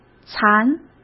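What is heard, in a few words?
"残", Mandarin Chinese; hsk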